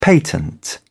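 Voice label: "patent", British English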